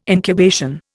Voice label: "incubation", Indian

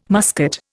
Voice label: "muscat", Indian

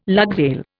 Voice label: "lugsail", Indian

